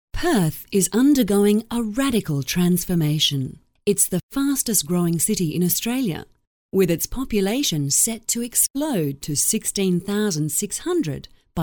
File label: Corporate